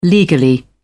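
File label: "legally", British English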